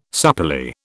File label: "supplely", British